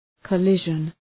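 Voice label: "collision", English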